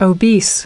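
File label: "Obesity", American English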